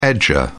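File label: "edger", British English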